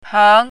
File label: "peng2", Chinese pinyin